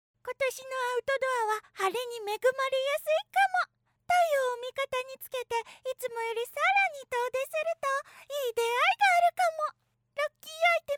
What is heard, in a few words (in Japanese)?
outdoor